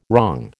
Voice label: "wrong", American